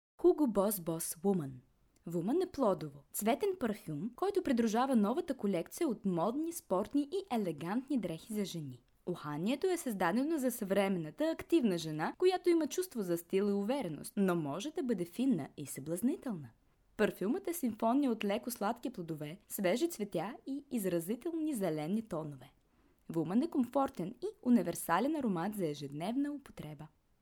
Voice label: BULGARIAN